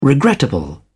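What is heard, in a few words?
"regrettable", British English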